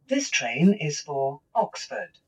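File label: Voice Female Train Tannoy Announcement; Jake Fielding; Train Onboards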